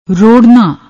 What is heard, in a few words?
"rorna", Punjabi